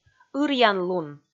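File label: "ыръянлун", Komi, female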